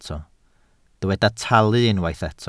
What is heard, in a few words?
"talu", Welsh